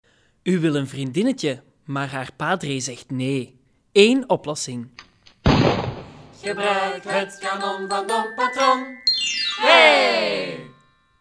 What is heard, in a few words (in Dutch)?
Reklamespotje